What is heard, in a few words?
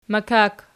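"makak" nominative, Archi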